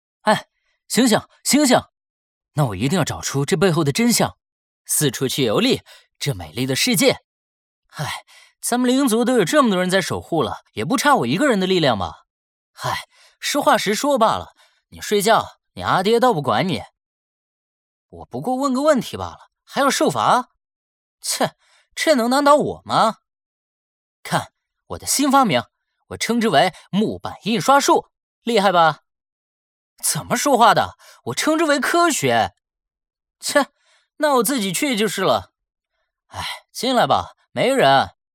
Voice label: 有声漫画角色青年音对话

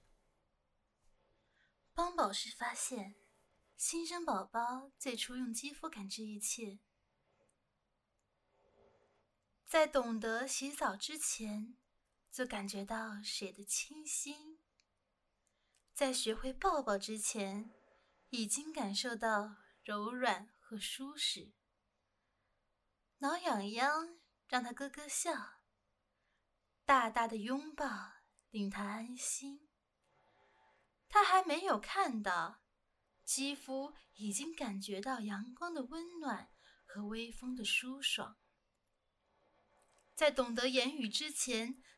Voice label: 广告 配音

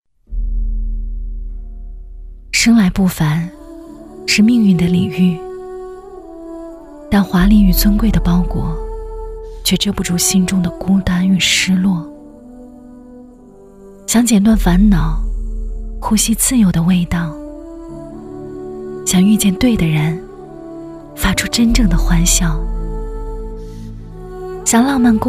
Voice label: 独白; 泰坦尼克

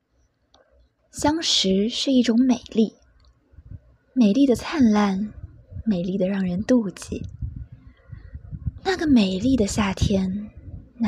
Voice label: 温柔少御音, 女, 普通话

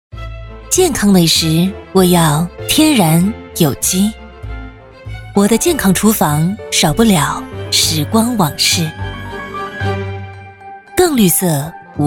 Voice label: 健康美食广告

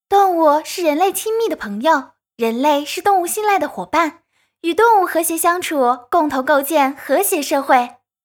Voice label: 广告少女温和活力

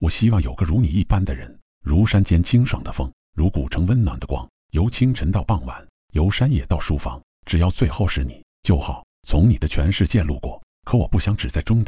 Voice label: 从你的全世界路过 有声读物; 男中音

